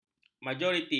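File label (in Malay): "majoriti", Malay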